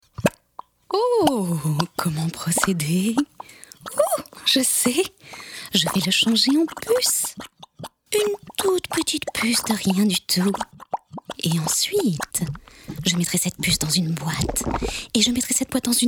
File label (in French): Dessin Animé Sorcière; Tessiture Voix Médium – Aiguë